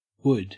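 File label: "wood", Australian English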